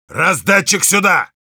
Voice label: need dispenser, ru; Heavy

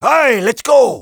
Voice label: Taunt demo flip

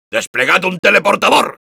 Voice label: need teleporter, es; Soldier

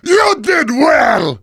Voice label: Heavy good job

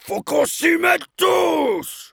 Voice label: Heavy cart moving forward offense, fr

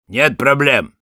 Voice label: positive vocalization, ru; Sniper

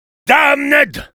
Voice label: negative vocalization, fr

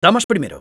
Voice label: battle cry, es

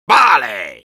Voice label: positive vocalization, es; Soldier

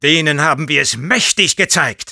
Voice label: cheers, de; Sniper

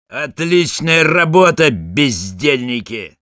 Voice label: jeers, ru; Sniper

